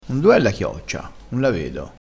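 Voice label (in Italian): "covo", dialettale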